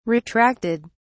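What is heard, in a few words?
"retracted", British English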